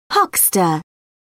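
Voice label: "huckster", English, uk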